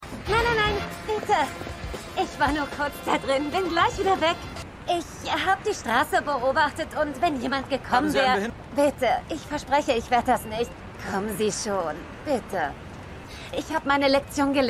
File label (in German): Autofahrerin, Stimmproben; Shameless